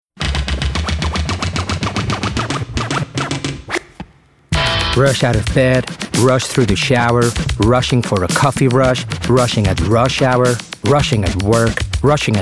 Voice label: Commercial, Bright, Upbeat, Conversational, English; Chanel